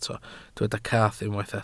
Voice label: "cath", Welsh

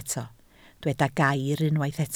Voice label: "gair", Welsh

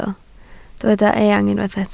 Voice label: "eang", Welsh

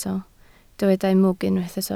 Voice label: "mwg", Welsh

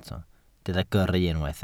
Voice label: "gyrru", Welsh

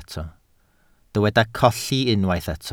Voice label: "colli", Welsh

